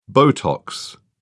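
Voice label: "botox", British English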